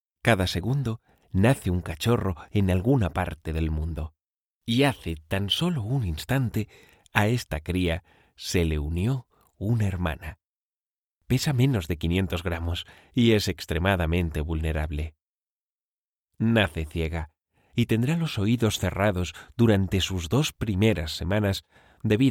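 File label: Spanish Europe Documentary; GoLocalise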